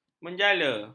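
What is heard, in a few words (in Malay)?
"menjala", Malay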